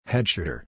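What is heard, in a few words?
"Headshooter", English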